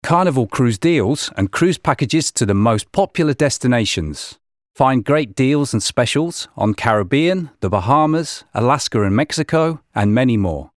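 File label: English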